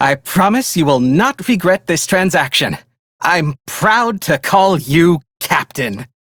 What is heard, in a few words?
Hired, Male; Dialog; Warframe; Railjack Crew; Perrin Sequence